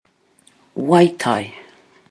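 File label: "wai tai", Maori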